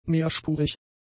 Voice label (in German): "mehrspurig", German; adjectives